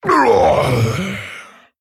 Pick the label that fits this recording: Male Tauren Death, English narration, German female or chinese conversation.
Male Tauren Death